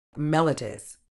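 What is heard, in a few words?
"mellitus", British English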